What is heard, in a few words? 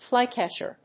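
"flycatcher", English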